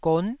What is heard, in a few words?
"gon9", taiwanese